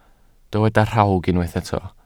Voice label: "rhawg", Welsh